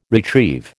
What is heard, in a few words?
"retrieve", American